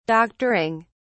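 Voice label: "doctoring", British English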